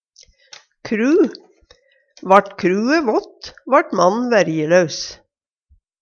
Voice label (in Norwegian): kru, Numedalsmål; Jakt, fangst, fiske